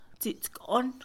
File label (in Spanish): ubre, Mixe Mujer; Cuerpo; INALI